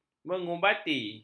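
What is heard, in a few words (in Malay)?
"mengubati", Malay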